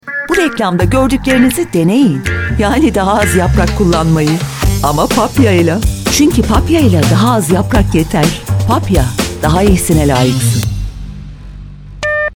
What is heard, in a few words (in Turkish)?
reklam; papia